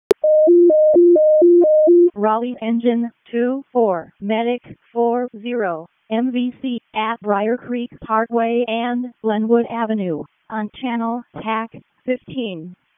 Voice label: EMS ALERT, p25; wake

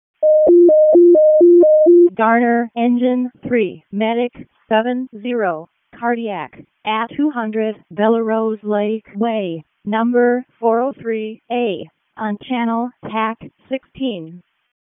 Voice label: EMS ALERT; wake; p25

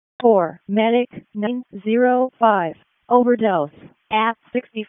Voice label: EMS ALERT, p25

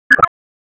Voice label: Police Spec Ops Tac, p25; Raleigh; Tac 4; wake

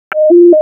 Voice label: EMS ALERT; wake

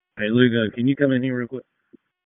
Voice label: FIRE OPS, p25; wake

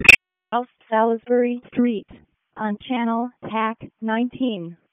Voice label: EMS ALERT

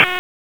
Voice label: Raleigh Police Northeast District Tac, p25; wake

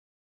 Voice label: WC EMS DISP1, P25 recordings; wake

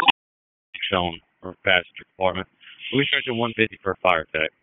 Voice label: FIRE OPS, p25

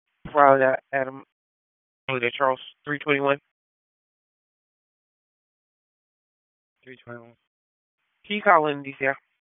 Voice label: Police Northeast District Disp, p25

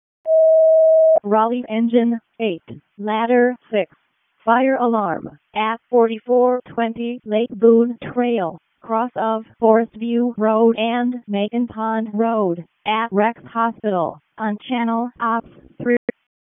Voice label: RFD ALERT, P25 recordings; wake

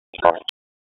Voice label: PS TAC, p25; wake p25

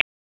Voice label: Raleigh Police Northeast District Tac, p25

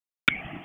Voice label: FIRE OPS, p25; wake

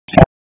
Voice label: Police Northwest District Tac 1; Raleigh; wake

